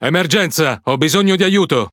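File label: Italian speech Quick Commands Sos; World Of Warships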